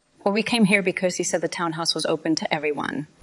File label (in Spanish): Voice Acting es